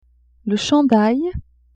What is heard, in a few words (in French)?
"chandail", French; vetements